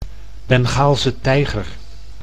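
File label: "Bengaalse tijger", Dutch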